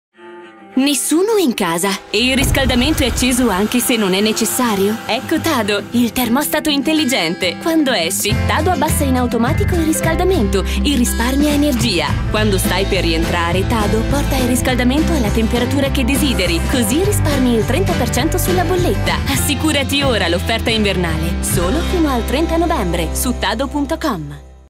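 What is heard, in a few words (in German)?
Italian, Fremdtake Dynamisch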